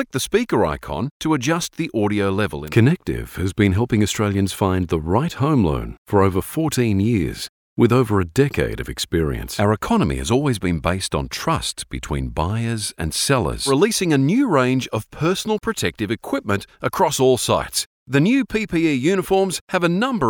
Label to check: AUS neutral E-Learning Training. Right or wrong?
Right